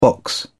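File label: "box", English, British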